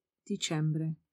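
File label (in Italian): "dicembre", Italian; numeri dati e calendario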